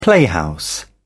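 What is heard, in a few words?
"playhouse", British English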